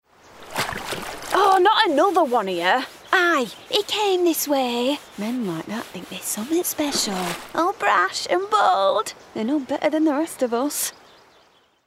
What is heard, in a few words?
Gaming Yorkshire; Washerwoman – Yorkshire